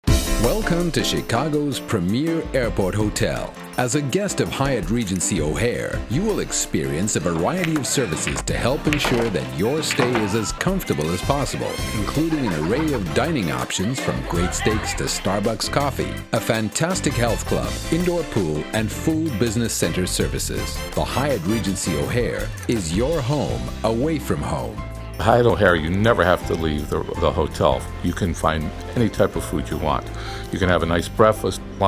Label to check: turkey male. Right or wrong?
Wrong